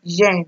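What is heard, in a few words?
"gen", Nigerian Pidgin, female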